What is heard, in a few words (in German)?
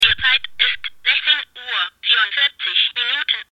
Zeitansage sprechende Armbanduhr